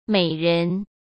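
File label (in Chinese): "美人", Mandarin; chinese flashcards; hsk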